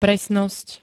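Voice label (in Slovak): "presnosť", Slovak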